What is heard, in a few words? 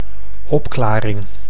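"opklaring", Dutch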